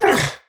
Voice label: Pain; Warframe; Tau; Dax; Knight